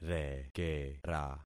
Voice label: "rekera", concat syllables; Affixing experiment

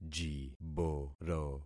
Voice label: "jiboro", concat syllables; Affixing experiment

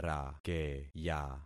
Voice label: "rakeya", concat syllables; Affixing experiment

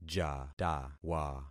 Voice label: "jatawa", concat syllables; Affixing experiment

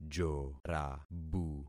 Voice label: "jorabu", concat syllables; Affixing experiment

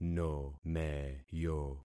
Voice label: "nomeyo", concat syllables; Affixing experiment

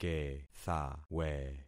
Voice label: "kethawe", concat syllables; Affixing experiment

